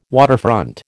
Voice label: "waterfront", American